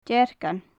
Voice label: "deargann", gaelic; Faclan Nàdair

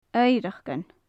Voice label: "oighreachdan", Gaelic; estate; Faclan Nàdair

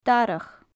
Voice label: "darach", gaelic; Faclan Nàdair

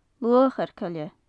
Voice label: "luachair coille", gaelic; Faclan Nàdair

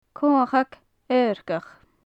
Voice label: "comhachag adharcach", gaelic; Faclan Nàdair

